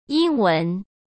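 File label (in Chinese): "英文", Mandarin Chinese; hsk; chinese-flashcards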